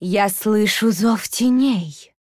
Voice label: Female Goblin Play; Hearthstone; датамайн обновления 5.0